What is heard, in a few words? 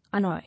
"Annoy", English